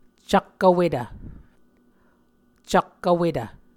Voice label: "cak-vwetv", muscogee